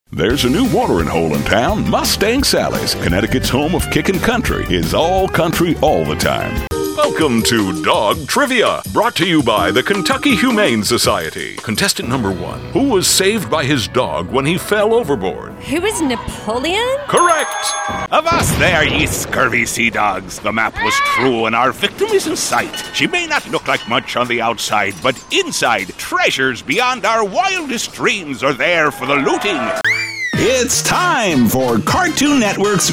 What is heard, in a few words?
Male Character Voices